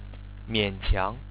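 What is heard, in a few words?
"mianqiang", Chinese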